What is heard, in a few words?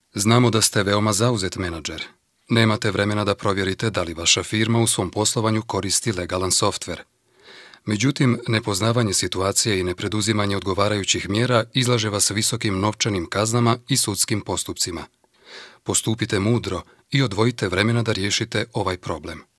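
bosnian male voice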